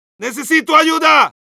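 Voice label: help me, Spanish; Medic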